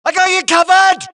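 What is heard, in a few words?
suppress, dialogue, Allies; mohaa; Mission 1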